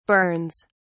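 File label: "burns", British English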